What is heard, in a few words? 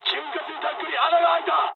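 Japanese damaged oil mood high; War Thunder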